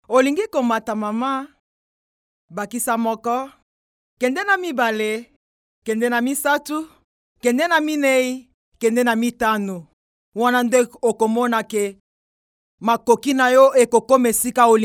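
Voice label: Lingala, female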